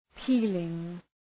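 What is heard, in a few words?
"peeling", English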